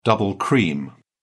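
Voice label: "double cream", British English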